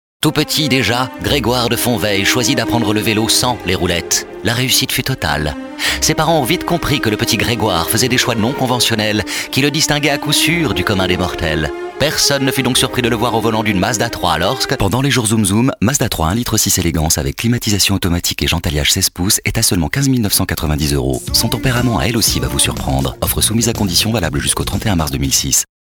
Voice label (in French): Lyrique précieux puis informatif; Mazda